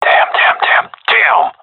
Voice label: scared; Counter Strike; CS:GO; seal diver